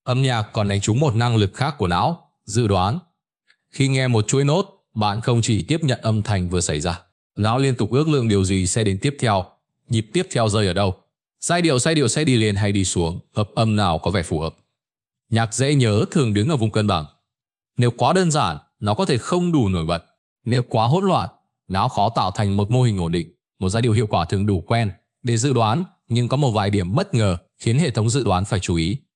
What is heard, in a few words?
narration; Vi Sao Mot Bai Hat Co The Mac Ket Trong Dau Ban Ca Ngay; StickerMan